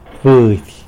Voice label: "budy", tlacolula; chicken